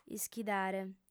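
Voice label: "ischidare", female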